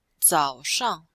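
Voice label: "zao3shang4", Chinese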